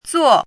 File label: "zuo4", pinyin